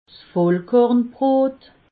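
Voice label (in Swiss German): "vollkornbrot", Alsatian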